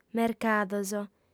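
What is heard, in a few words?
"mercados", female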